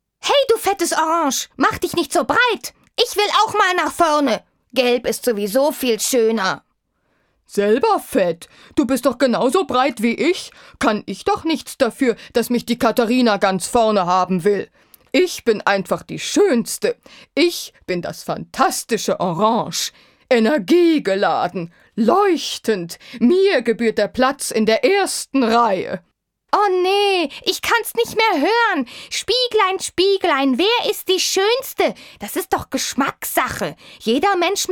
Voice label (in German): Kindlich